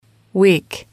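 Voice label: "weak", female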